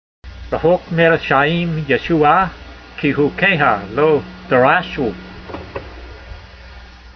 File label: voice, hebrew language; Psalm 119:155; rahok mayr(d)esha'eem yeshoo'ah, kee-hukeyha lo darashoo